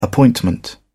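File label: "appointment", British English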